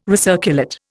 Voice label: "recirculate", Indian